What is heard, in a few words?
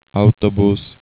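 "autobus", Slovak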